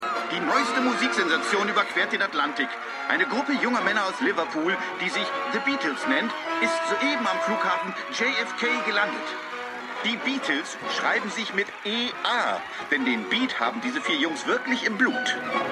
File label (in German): Nachrichtenreporter, Stimmproben